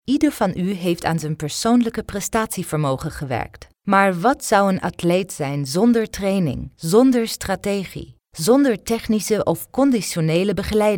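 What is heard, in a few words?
Dutch female informative; reel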